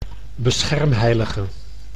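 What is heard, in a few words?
"beschermheilige", Dutch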